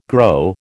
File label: "grow", American